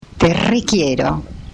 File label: Spanish Argentine